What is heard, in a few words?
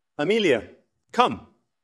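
VoiceActing es M2, voice acting, Spanish, male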